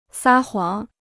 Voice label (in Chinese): "撒谎", Chinese